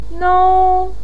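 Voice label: "nou", Mandarin Chinese first tone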